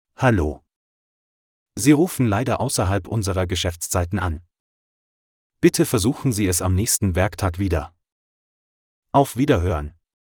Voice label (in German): ausserhalb Hang Up MALE